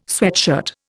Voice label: "sweatshirt", Indian